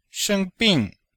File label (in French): "sheng1bing4", chinois; Cours de Chinois